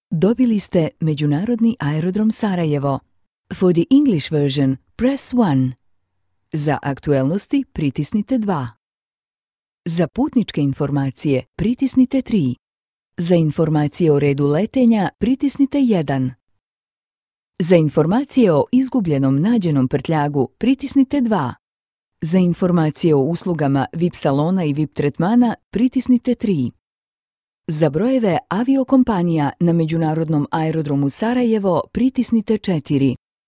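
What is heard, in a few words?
Bosnian IVR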